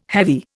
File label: "heavy", Indian